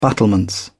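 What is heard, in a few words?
"battlements", British English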